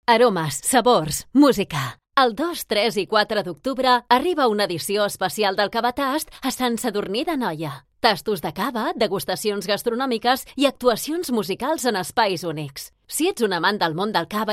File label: catalan promos